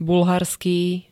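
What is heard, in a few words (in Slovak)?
"bulharský", Slovak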